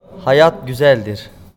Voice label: Life Is Beautiful Turkish

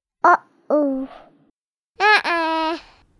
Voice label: Baby Says Uhoh

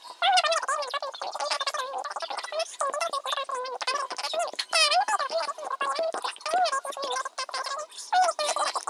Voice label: Bla Bla Phone

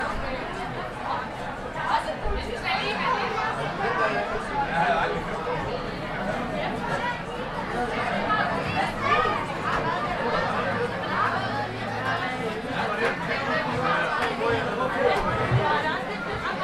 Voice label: Chatter In A Large Tent

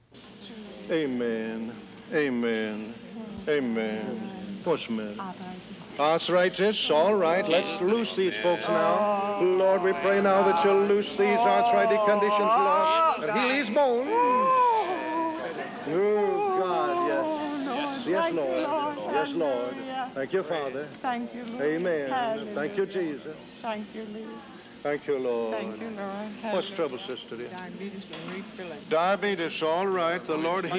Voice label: Evangelical Healer

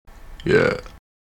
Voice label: "Yeah", Vocal

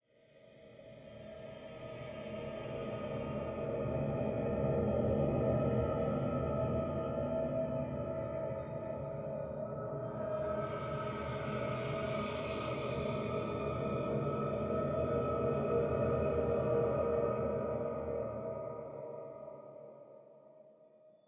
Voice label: Desperate Voice

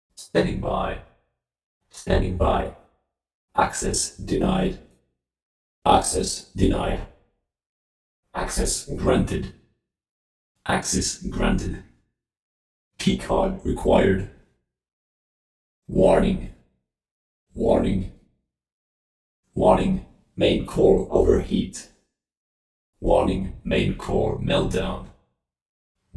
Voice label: Futuristic Robotic Voice Sentences

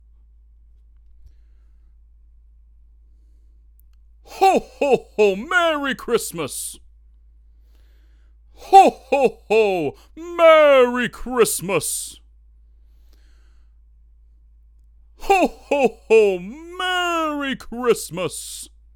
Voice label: Santa claus Ho ho ho

